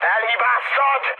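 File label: Hungarian bomb failure mood high, speech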